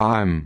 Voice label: voice track

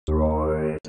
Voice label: droid voice

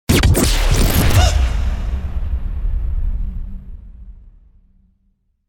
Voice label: Voice Accent - Huh; DJ sounds

